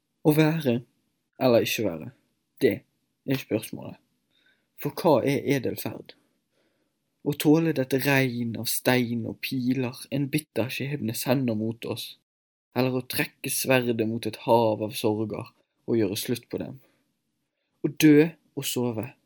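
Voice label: Norwegian, male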